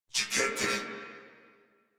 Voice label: Surprised Crewmen, Battle Barks; Dialog; Warframe; Corpus Crewmen; Crewman C